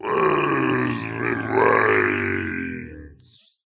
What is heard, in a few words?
Pirate Groan